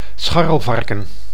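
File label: "scharrelvarken", Dutch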